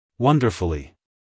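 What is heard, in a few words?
"wonderfully", American English, male; everyone-can-use-english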